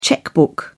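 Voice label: "checkbook", British English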